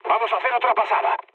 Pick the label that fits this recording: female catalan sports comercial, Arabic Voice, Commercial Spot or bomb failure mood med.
bomb failure mood med